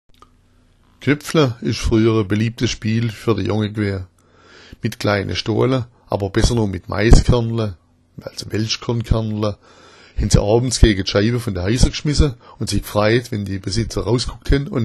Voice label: "knepfla", schwaebisch

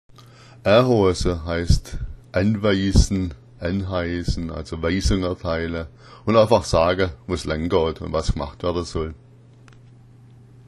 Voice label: "ahoasa", schwaebisch; a'hoaßa ist anheißen, Weisung erteilen, sagen wo es lang geht

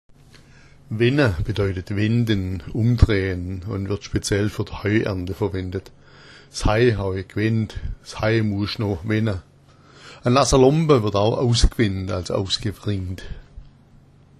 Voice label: "wenna", schwaebisch

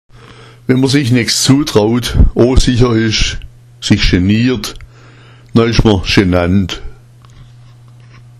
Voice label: "schenand", schwaebisch; Wenn man sich nichts zutraut, unsicher ist, sich geniert, ist man schenand

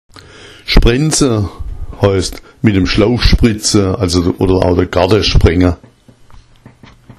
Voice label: sprenza, schwaebisch; sprenza heißt mit dem Schlauch spritzen, den Garten sprengen

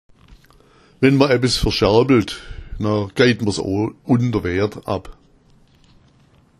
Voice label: verscherbla, schwaebisch; wenn man etwas verscherblt, gibt man es unter Wert ab.

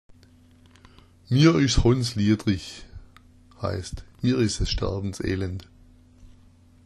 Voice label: hondslieadrich, schwaebisch

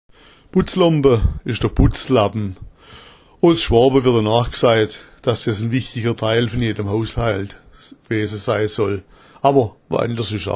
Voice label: butzlomba, schwaebisch; Butzlompa ist der Putzlappen

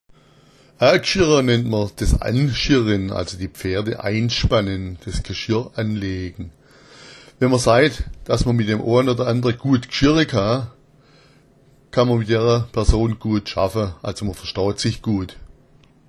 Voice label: "agschirra", schwaebisch; a'gschirra nennt man das anschirren, die Pferde einspannen (das Geschirr anlegen).

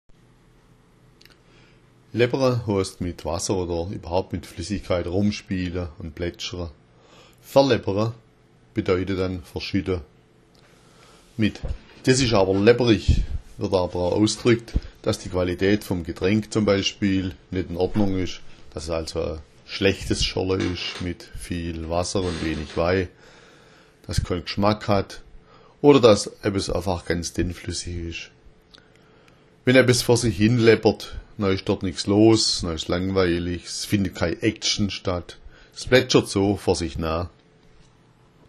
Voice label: "laeppera", schwaebisch

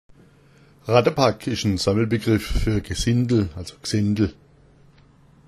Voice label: rattapack, schwaebisch

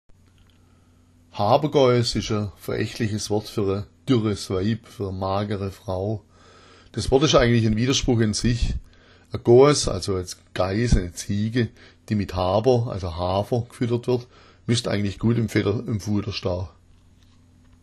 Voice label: habergois, schwaebisch; Haber ist das Getreide Hafer

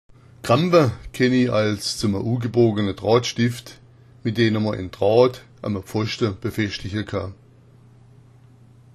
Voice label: "krabba", schwaebisch; Krabba sind im Schwäbischen ein Sammelbegriff für Krähen und Raben

